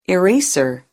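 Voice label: "eraser", English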